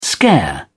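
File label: "scare", British English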